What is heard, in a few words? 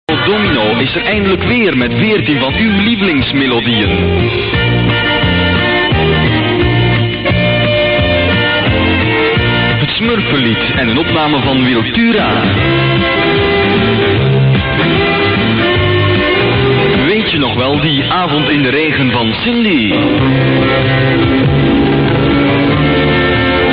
commercial